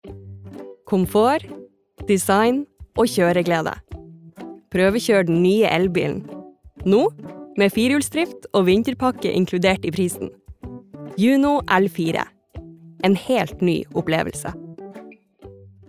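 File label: Norwegian advert, norwegian female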